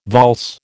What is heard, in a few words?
"valse", American